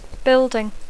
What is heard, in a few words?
"building", English